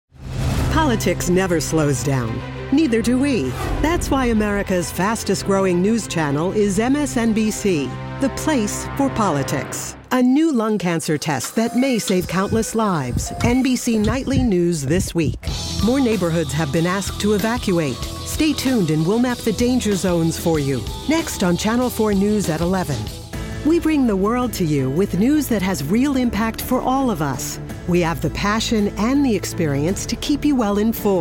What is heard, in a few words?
Network and Affiliate Promo